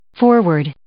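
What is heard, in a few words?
"forward", English; adverb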